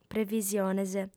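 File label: "previsiones", Sardinian, female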